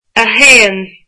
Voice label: one, irish